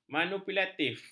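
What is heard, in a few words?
"manipulatif", Malay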